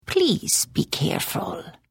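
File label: Follow, Khajiit female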